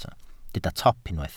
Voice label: "top", Welsh